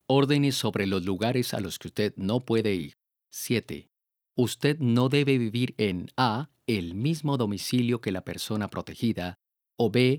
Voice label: orders about where you cannot go spanish